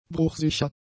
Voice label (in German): "bruchsicher", German; adjectives